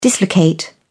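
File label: "dislocate", British English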